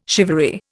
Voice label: "shivaree", Indian